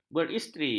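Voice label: "beristeri", Malay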